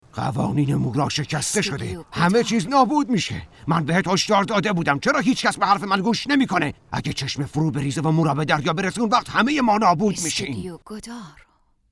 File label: dub